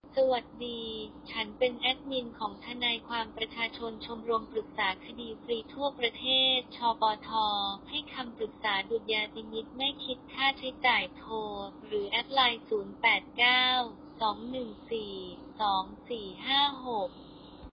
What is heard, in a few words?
voice thai; ฟ้องเท็จและเบิกความเท็จเรื่องสั่งจ่ายเช็ค